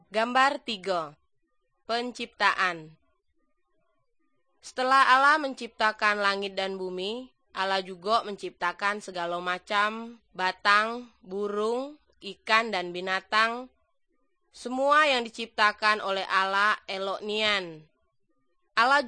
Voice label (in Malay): good news malay jambi